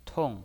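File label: "tong", pinyin voice, fourth tone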